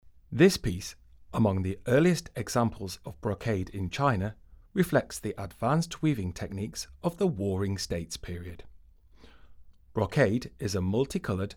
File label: ENG, audio guide; Chris Hall collection